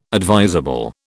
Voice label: "advisable", British